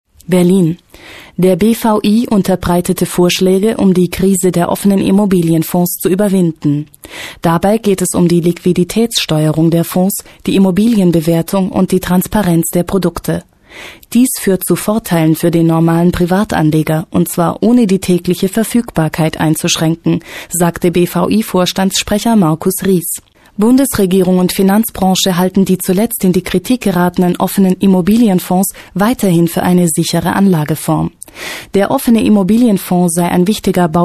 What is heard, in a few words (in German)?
Nachrichten